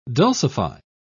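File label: "dulcify", English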